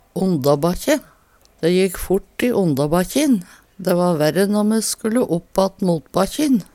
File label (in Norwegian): ondabakkje, Numedalsmål; Dæ jekk fort i ondabakkjin. Dæ va tyngre når me skulle oppatt motbakkjin.